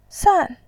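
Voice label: "san", Mandarin Chinese, fourth tone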